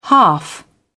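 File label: "half", British English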